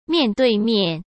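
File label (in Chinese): "面对面", Mandarin Chinese; hsk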